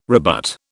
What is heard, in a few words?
"rebut", British